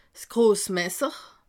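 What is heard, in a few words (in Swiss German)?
"grossmesser", Alsatian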